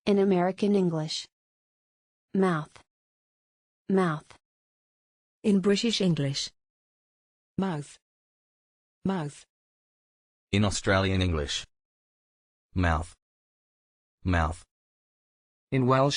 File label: mouth pronunciation american british australian welsh